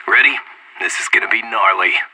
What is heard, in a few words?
radio lock n load, player vo anarchist; Counter-Strike Global Offensive